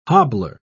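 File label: "hobbler", British English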